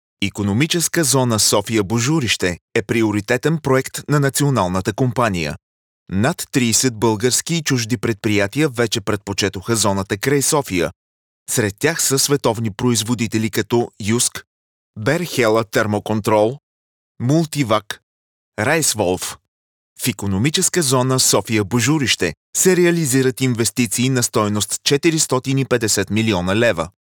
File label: bulgarian political ads